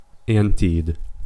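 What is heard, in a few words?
"anted", American English; pronunciations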